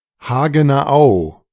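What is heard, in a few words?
"Hagener Au", German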